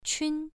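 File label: "qun", tone 1, pronunciation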